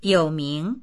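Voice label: "you3ming2", Mandarin Chinese; 有名; 有名である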